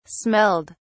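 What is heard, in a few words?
"smelled", English, British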